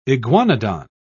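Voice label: "iguanodon", English, British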